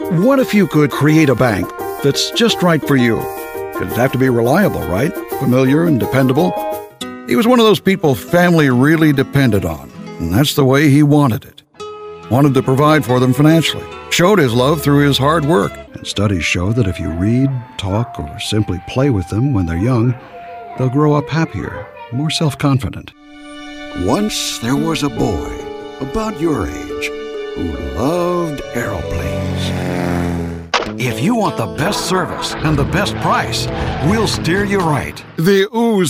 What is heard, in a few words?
Male Voice Over Commercial